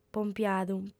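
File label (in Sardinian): "pompiadu", female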